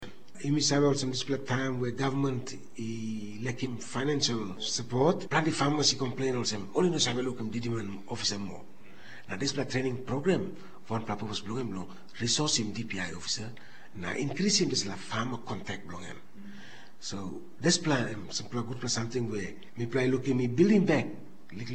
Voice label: Tok Pisin; Mama Graun